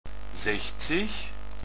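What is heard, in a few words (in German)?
"sechzig", German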